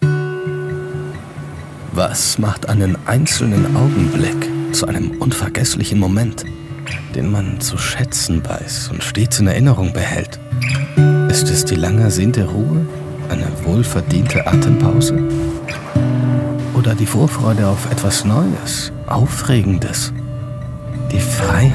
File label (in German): Sanft